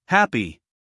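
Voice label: "happy", American English, male; 1000 hours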